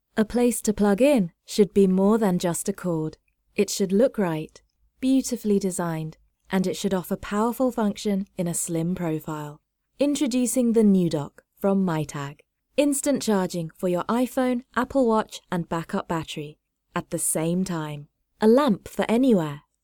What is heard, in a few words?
English United Kingdom